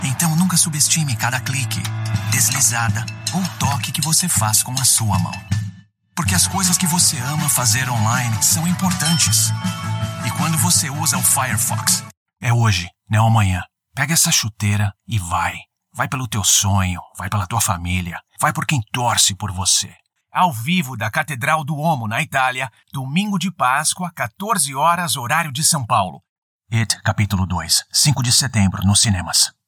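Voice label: Brazilian Portuguese male; vo reel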